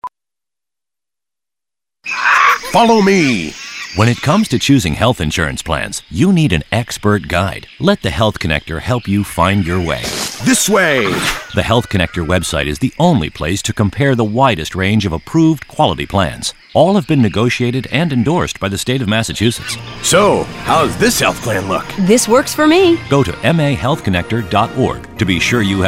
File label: radio